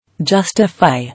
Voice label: "Justify", English

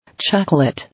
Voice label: "Chocolate", English

